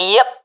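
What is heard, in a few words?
affirmation, professional female; Counter Strike Model Pack; CS:GO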